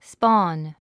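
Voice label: "spawn", English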